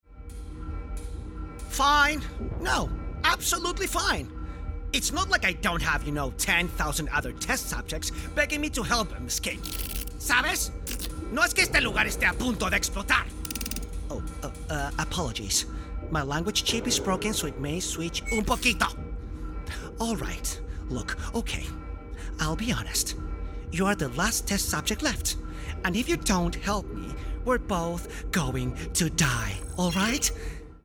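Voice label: Latin American Spanish male ENGLISH and SPANISH CHARACTER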